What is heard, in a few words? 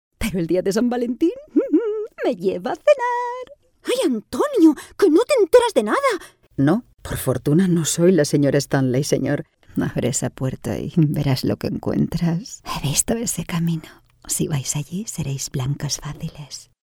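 Spanish Spain, female, voice over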